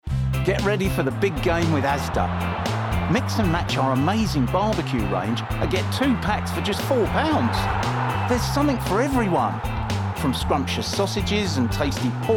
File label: Commercials Montage